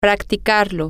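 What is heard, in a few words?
to practice it, spanish, female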